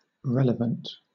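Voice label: "relevant", British English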